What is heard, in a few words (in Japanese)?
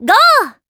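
"ご" (go), Japanese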